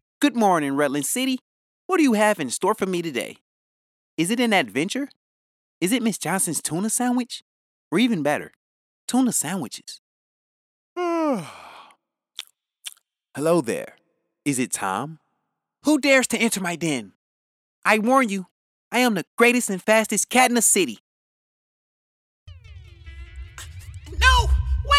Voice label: Voices Animated Character

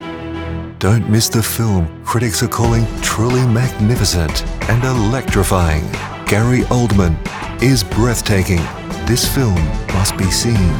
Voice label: Trailer Promo Imaging

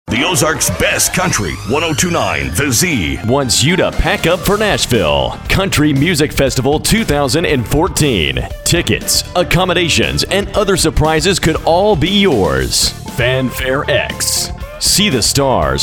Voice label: Promo Sales; Country Music Festival 2014; The Z

